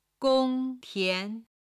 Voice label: "gong1tian2", chinese

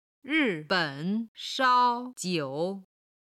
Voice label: "ri4ben3shao1jiu3", chinese

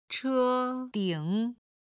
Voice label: "che1 ding3", chinese

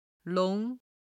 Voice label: "long2", chinese

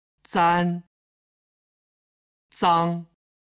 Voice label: "zan" "zang", chinese

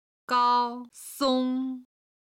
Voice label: "gao1song1", chinese; chinese travel

